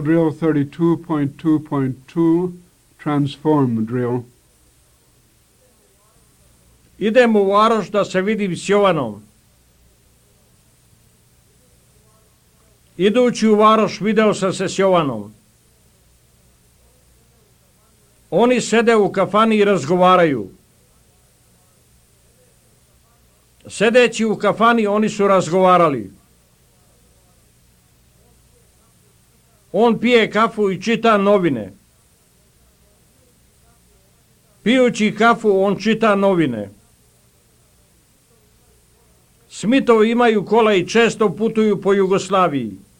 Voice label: Serbo Croatian Basic; FSI